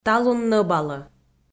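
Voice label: "талун нӧбалӧ", Komi, female; audio dict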